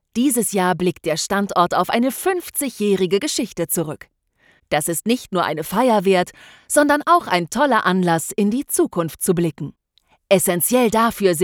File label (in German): Erkla rvideo